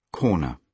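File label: "corner", British English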